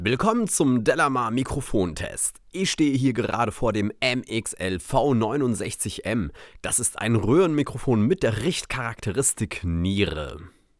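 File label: speech male; mxl v69m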